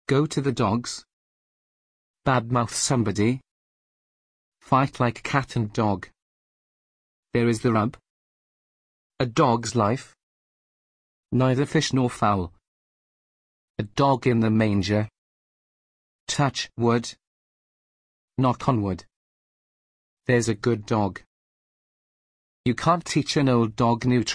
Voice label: dog idioms English Polish